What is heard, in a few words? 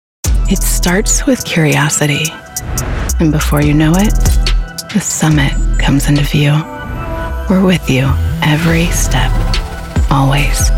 Commercials